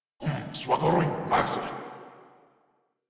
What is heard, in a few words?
Reload, Grineer Male